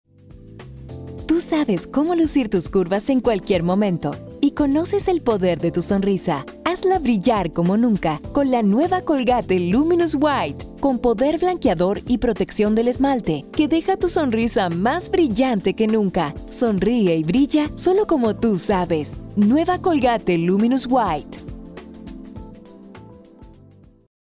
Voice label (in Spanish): Spot neutro; colgate; rode